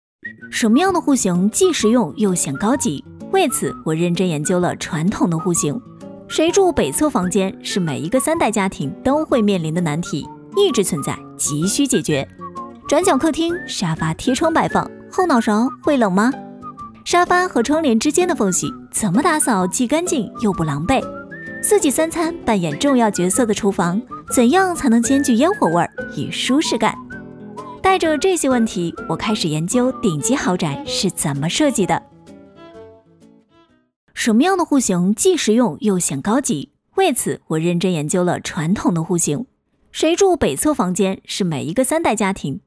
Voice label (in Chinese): 女, 户型分析